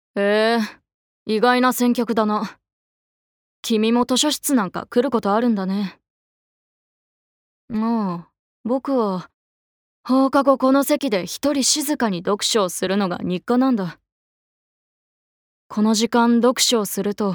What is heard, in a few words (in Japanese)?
アニメ